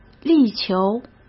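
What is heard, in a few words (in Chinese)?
"力求", Mandarin Chinese; hsk; chinese-flashcards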